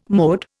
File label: "mode", Indian